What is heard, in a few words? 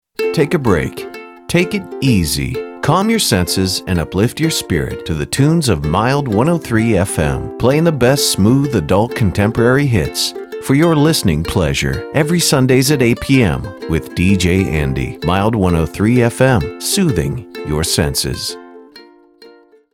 Radio Lite Soft AC